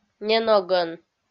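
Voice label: "неногӧн", Komi, female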